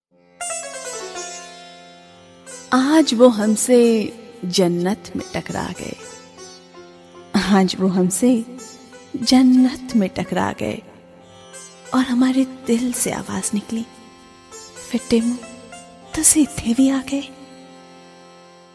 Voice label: Funny Hindi Shayari Ringtone